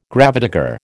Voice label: "gravedigger", American